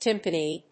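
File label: "timpani", English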